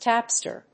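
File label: "tapster", English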